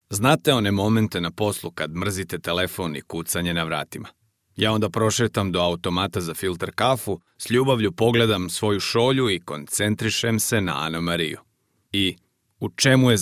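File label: Serbian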